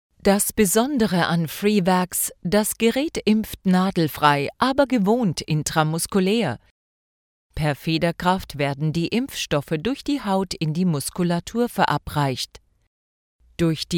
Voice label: locutora alemana german voiceover, German female voice over; German female voice over talent